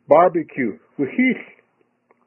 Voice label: Barbecue Wehill To Broil To Barbecue To Bake Pots, Kumeyaay